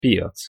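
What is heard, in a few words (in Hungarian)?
"piac" Hungarian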